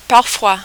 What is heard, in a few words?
"parfois", French